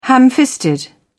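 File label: "ham-fisted", British English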